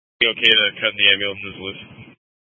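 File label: Incident Tactical 1, Scanner audio recording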